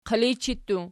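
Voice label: "qilijchi" plural, Archi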